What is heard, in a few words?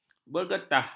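"bergetah", Malay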